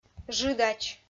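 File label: "жыдач", Komi, female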